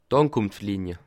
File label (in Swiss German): "ankunftlinie", Alsace